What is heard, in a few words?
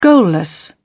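"goalless", British English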